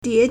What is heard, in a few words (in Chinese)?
"die2", Chinese